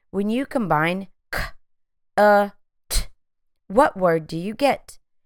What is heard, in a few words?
c ŭ t phonemic blending